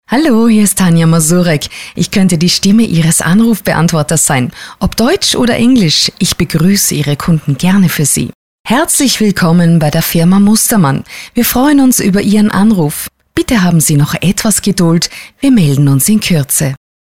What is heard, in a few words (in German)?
Sprecher Telefonansagen